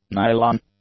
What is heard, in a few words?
"nylon", English; nouns